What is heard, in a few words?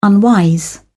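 "unwise", English, British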